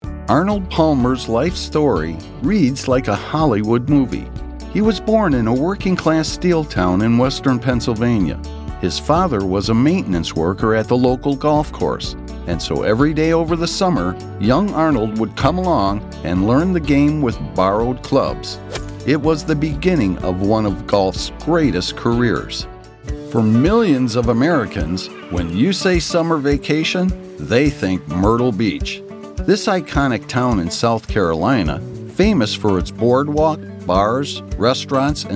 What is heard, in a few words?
narration